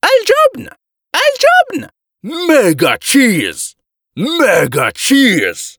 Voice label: arabic animation